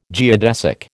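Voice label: "geodesic", American English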